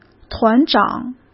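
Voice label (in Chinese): "团长", Mandarin Chinese; hsk; chinese-flashcards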